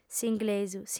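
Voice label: "s'inglesu", female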